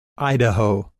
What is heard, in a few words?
"Idaho", English pronunciation